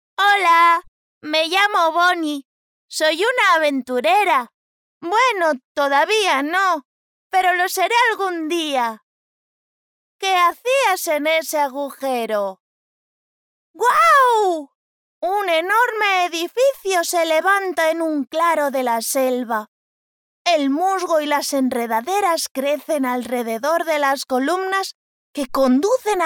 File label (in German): Kindlich, ESP